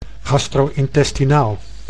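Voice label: "gastro-intestinaal", Dutch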